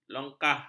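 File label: "longkah", Malay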